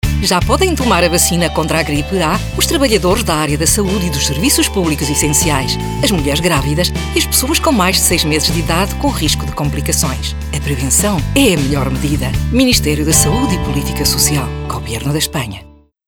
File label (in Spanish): PORTUGUES